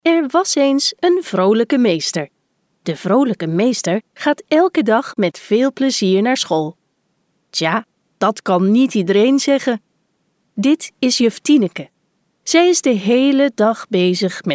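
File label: Dutch, female, narration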